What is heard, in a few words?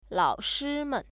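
"laoshimen", Chinese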